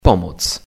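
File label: "Help", Polish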